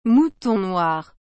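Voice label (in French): "mouton noir", French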